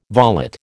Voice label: "volet", American

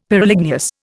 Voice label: "Pyroligneous", Indian; Dictionary

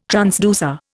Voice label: "transducer", Indian

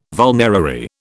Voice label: "vulnerary", British

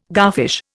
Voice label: "garfish", Indian

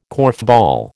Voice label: "korfball", American

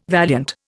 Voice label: "valiant", Indian

